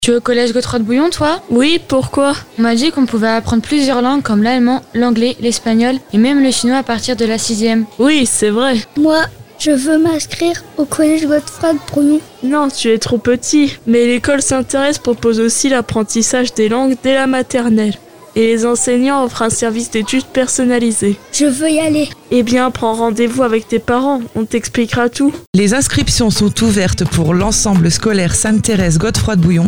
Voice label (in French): annonce, Transat Fm; ecole ensemble scolaire